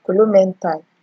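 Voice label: "kolomental", Nigerian Pidgin, female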